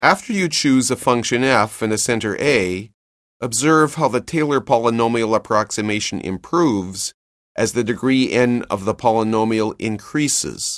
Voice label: narration; CALCULUS 7E; cengage